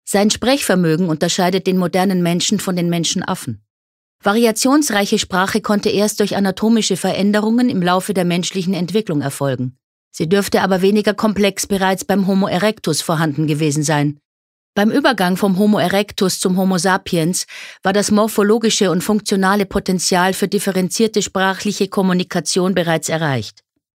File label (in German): Sprache Neutral